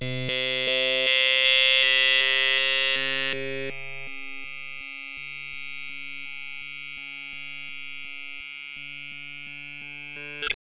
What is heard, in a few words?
speech PSOLA